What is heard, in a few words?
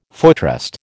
"footrest", American